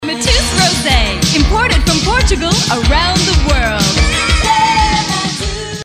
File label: energy fun youthful; Mateus Rose